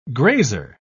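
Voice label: "grazer", British English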